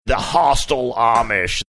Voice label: saying hostile amish deep aggressive